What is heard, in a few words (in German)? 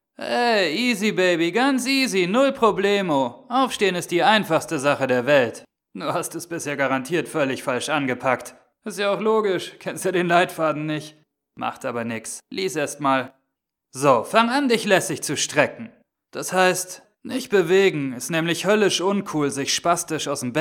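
Cool, Schauspiel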